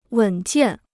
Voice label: "稳健", Chinese

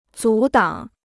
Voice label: "阻挡", Chinese

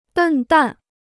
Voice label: "笨蛋", Chinese

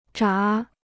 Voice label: "闸", Chinese

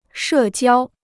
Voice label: "社交", Chinese

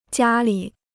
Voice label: "家里", Chinese

